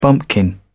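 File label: "bumpkin", British English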